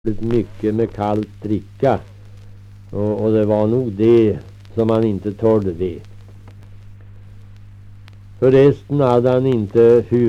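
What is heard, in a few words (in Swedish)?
Riala Uppland, dialekter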